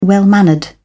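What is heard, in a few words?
"well-mannered", British English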